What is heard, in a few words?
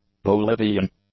"Bolivian", English; adjectives